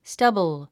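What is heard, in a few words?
"stubble", English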